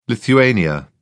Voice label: "Lithuania", spoken; europe